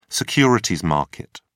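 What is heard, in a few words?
"securities market", British English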